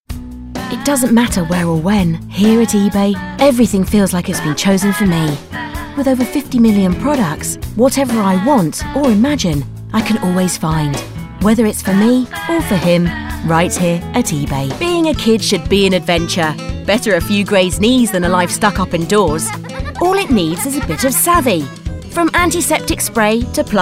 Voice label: Commercials